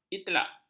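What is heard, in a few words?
"itlak", Malay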